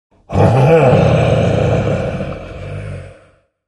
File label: anger; Chaos Knight